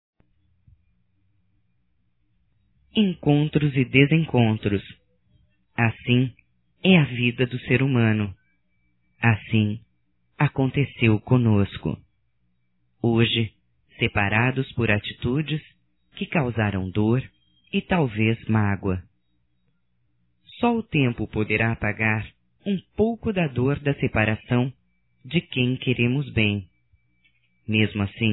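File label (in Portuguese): voz Marcando feminino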